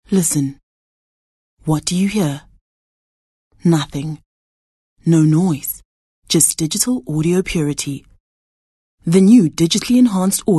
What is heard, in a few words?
English softsell